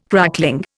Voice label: "crackling", Indian